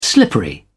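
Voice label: "slippery", British English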